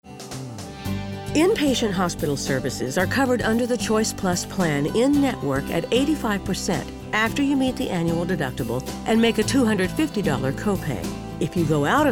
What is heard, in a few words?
Narr